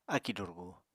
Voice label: "akì durgu", Apatani